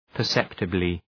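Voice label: "perceptibly", English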